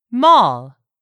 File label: "MALL", english; 3000 words